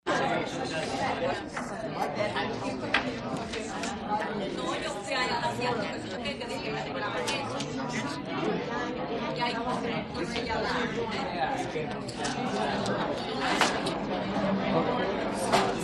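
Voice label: Elderly People Lively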